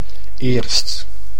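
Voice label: "eerst", Dutch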